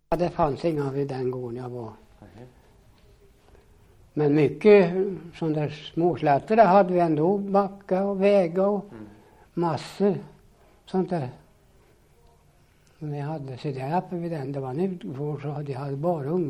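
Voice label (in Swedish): Östra Tollstad Östergötland, Svenska sagor, dialekter; Folkminnen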